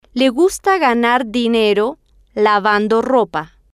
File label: le gusta ganar dinero lavando ropa, Spanish